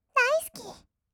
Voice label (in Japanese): "だいすき", kawaii voice; 1200 kawaii voice!!; 色々なワンショット